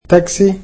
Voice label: "taxi", egyptian